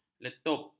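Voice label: "letup", Malay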